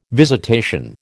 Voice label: "visitation", American

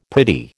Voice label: "pretty", American

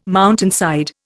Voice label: "mountainside", Indian

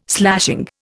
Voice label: "slashing", Indian

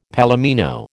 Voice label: "palomino", American

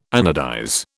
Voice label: "anodize", British

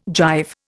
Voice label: "jive", Indian

